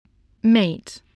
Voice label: "mate", spelling voice